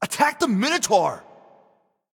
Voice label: vox attack; smite; hebo